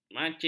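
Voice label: "macis", Malay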